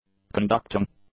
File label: "conducting", English; adjectives